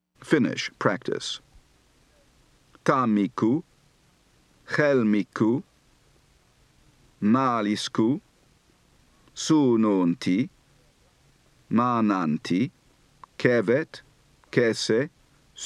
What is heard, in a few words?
Finnish, Accent